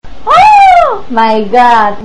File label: Female Screaming My God